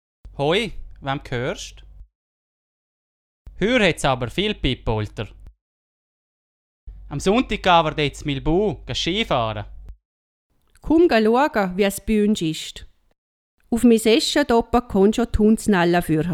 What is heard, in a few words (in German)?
Walser Dialekt Voices; 300 Jahre